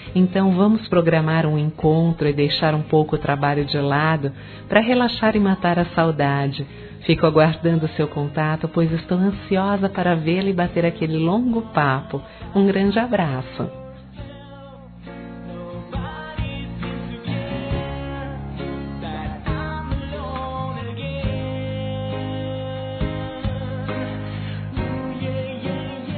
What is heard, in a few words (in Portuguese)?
Saudades Amiga Feminino; Telemensagens